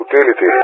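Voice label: "utility", English speech; Target; DCS; Allied Flight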